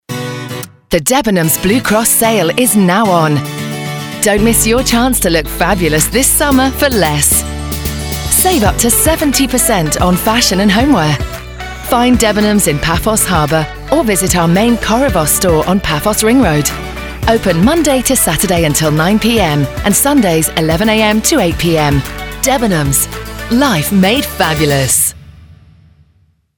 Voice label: Blue X Sale Radio Ad; Debenhams